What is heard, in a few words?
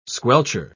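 "squelcher", British English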